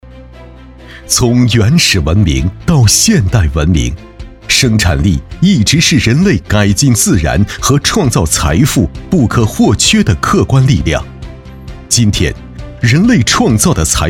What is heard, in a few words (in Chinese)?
男 城市宣传 车企